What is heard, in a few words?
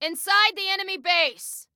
Warn Enemy Inside Enemy Base, Female